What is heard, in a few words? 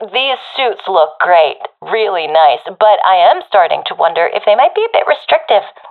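round losing, professional female; vo; Counter Strike Model Pack; CS:GO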